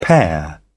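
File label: "pair", British English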